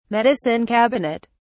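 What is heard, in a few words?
"medicine cabinet", English, British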